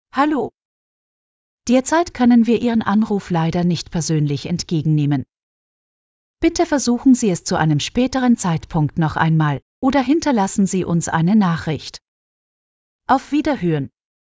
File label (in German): innerhalb VM FEMALE